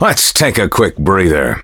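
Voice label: levelup, English; Cookie Run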